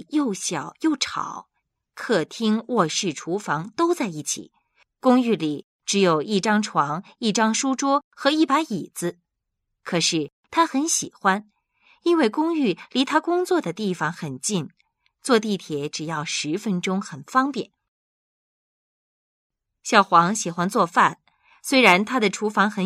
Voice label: narrative